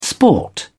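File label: "sport", British English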